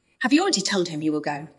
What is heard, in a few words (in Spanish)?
Voice Acting es